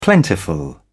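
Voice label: "plentiful", British English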